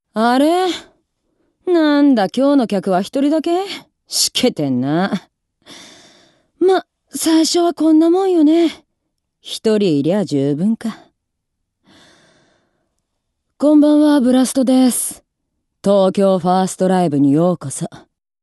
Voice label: female voice; セリフ